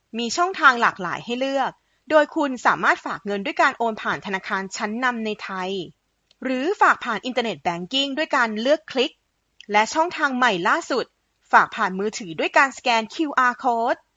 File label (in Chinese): 泰语女, 外语, 成熟; 小语种